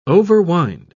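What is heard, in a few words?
"overwind", English, British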